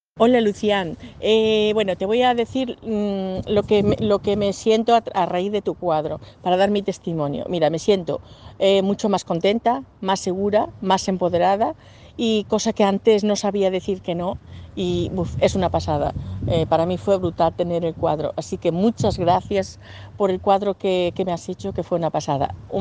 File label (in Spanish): Audio Testimonio; de la obra Perlas